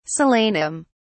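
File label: "solanum", English, British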